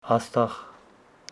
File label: Homshetsi star